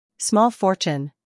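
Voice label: "scandal", American; small fortune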